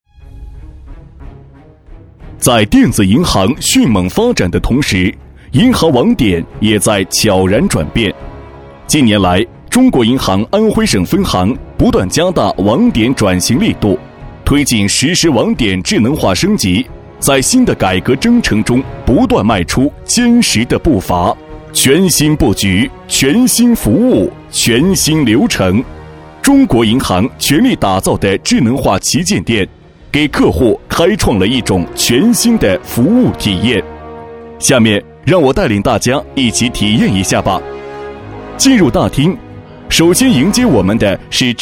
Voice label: 男 企业专题 大气沉稳; 中国银行

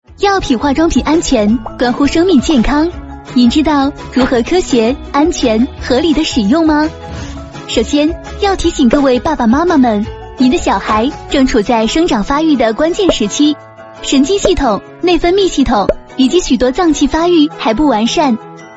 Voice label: 女 飞碟MG类型 视频

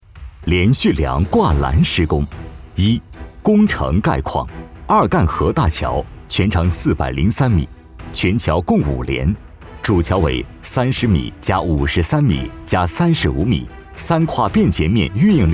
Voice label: 男, 工程; 连续梁

